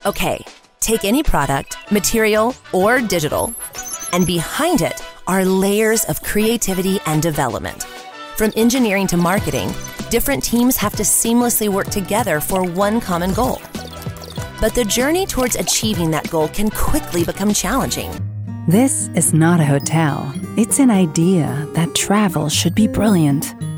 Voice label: Ingles USA Canada Voz femenina media

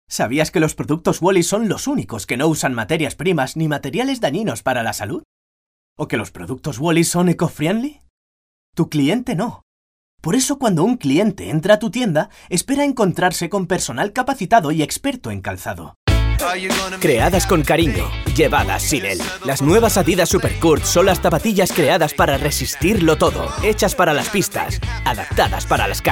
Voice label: voz masculina juvenil

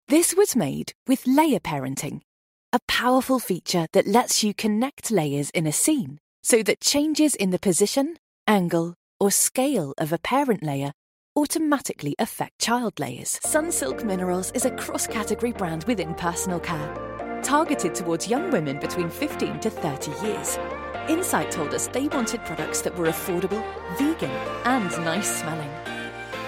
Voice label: Ingles UK voz femenina media

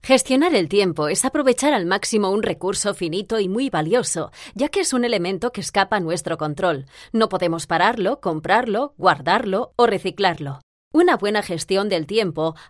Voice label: voz femenina media

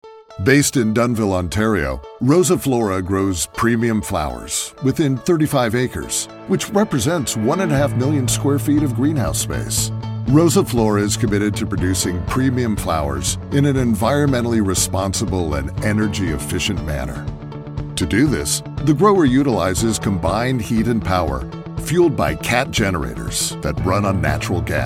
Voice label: Ingles USA Canada Voz masculina grave

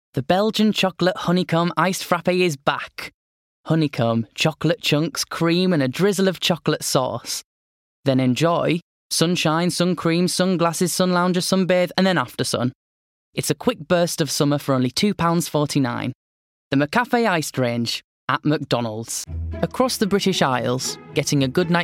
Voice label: Ingles UK Voz masculina juvenil

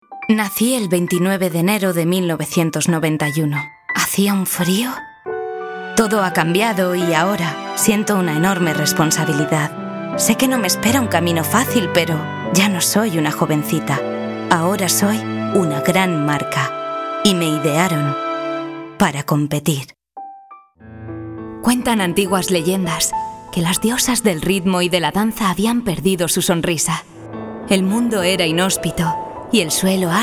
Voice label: voz femenina juvenil

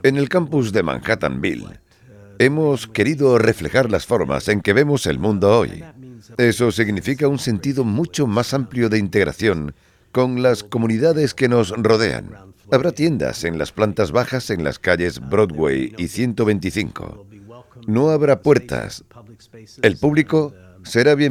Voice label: Voz masculina anciano

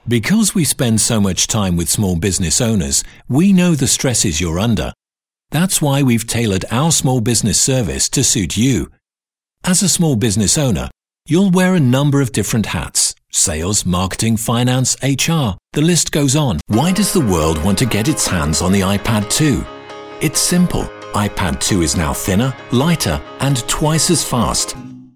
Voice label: Ingles UK Voz masculina media

